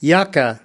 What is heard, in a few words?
"yucca", us